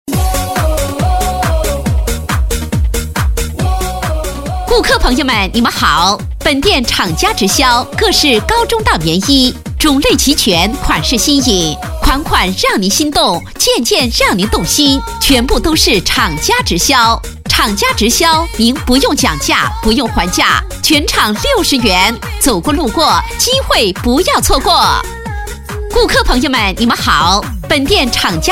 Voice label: 女 广告 促销; 厂家直销棉衣

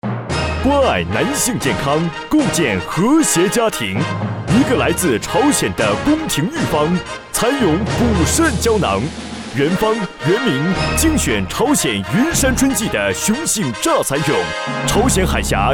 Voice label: 男 激情专题 激情高亢

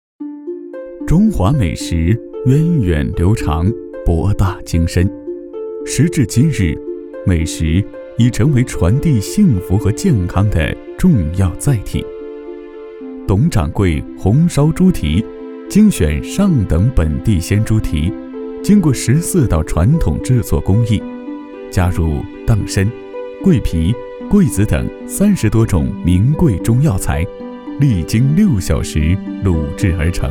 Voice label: 男 纪录片 模仿舌尖感觉; 董掌柜猪蹄

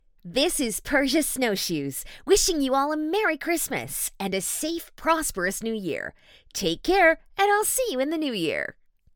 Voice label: 活力亲切, 新年假期通知

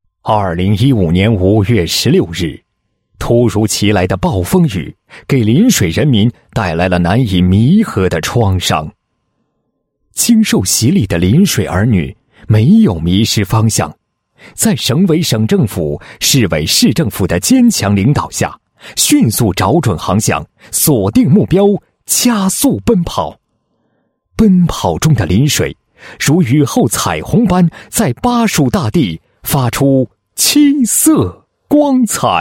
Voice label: 男-党建-县委专题片脚本 组织部, 配音案例; 县委专题片脚本 风雨彩虹 组织部

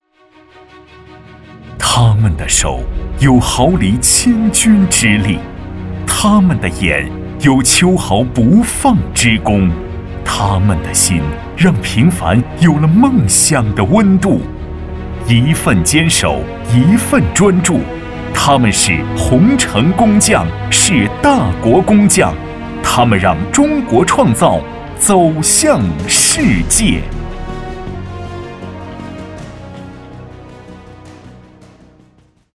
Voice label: 男 专题 舒缓大气 宣传, 国语男声配音 大气浑厚; 洪城工匠

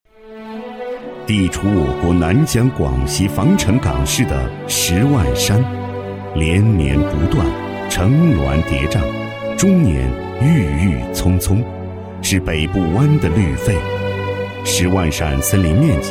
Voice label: 男 产品介绍, 宣传介绍; 十万山牛大力

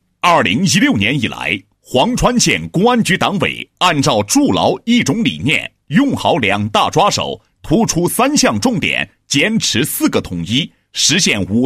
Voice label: 男 工作汇报 规范化管理自督自察情况汇报

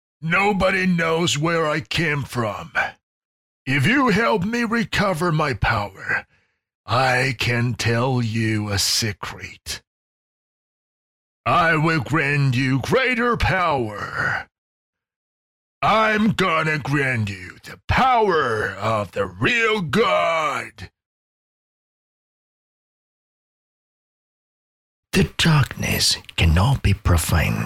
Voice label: 英男, 英文游戏, 游戏角色

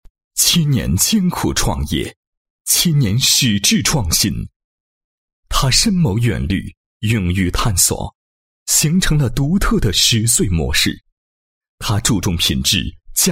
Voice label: 男, 人物, 开场人物介绍; 某公司董事长仰某

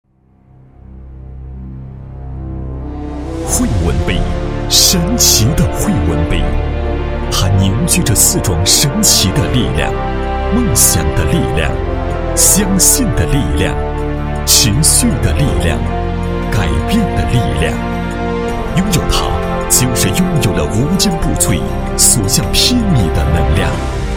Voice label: 男 解说, 国语男声配音; 汇文杯

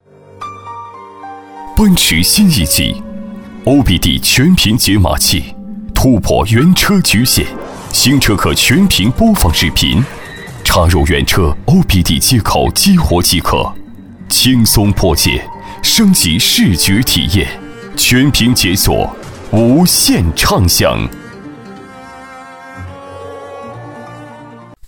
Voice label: 男 广告 品质感; 奔驰

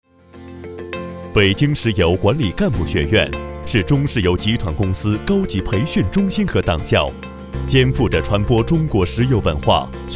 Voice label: 男 党建课件; 北京石油党校

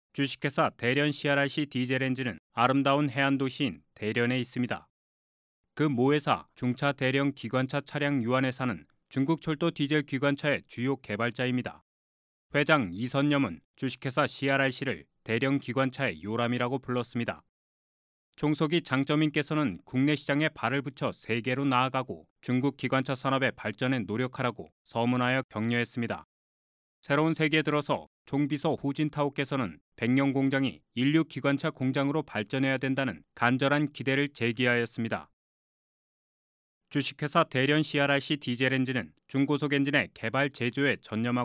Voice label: 外籍韩语男 展示会解说词

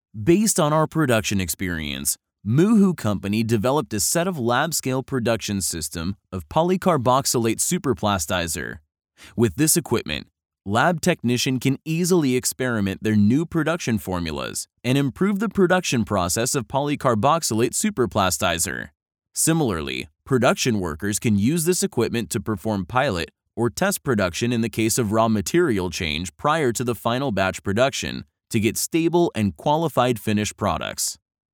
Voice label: 外籍英男 生产设备介绍

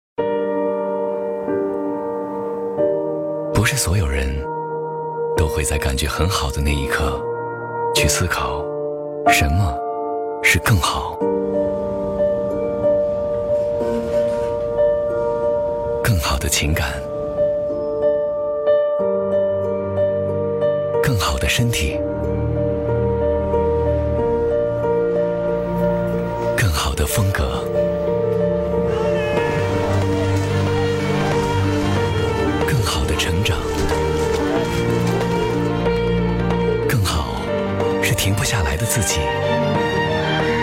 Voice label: 男, 广告; 特仑苏更好篇; 从更好开始; 从特仑苏开始

